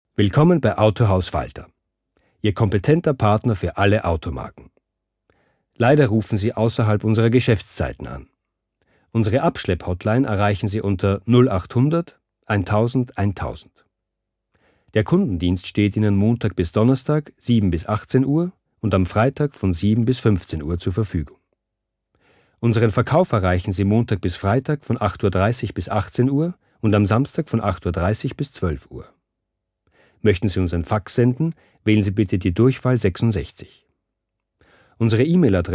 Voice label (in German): telefon